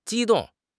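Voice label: "ji1 dong4", Mandarin Chinese; HSK; HSK4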